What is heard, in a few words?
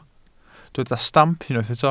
"stamp", welsh